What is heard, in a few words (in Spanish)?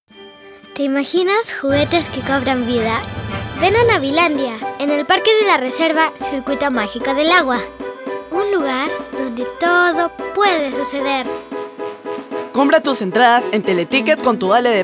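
Radio Niña; Navilandia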